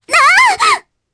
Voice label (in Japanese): Damage, jp; Reina; kingsraid audio